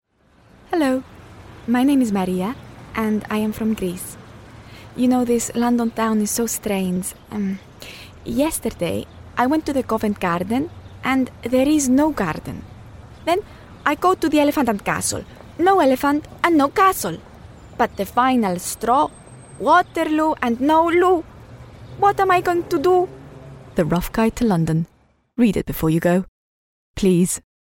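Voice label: Greek Accented English; GoLocalise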